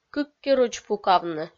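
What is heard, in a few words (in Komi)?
"кыккирудз пукавны", Komi, female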